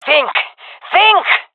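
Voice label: scared, female; player vo; Counter Strike Model Pack; CS:GO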